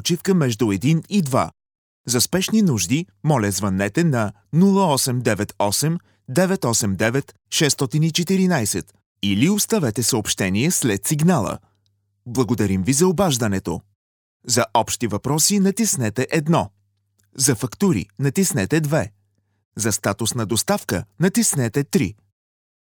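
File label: bul male voice over ivr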